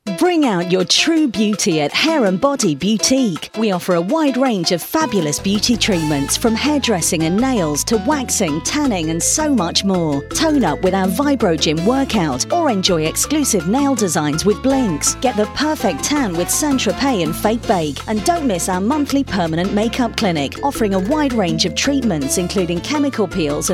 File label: female